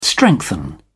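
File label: "strengthen", English, British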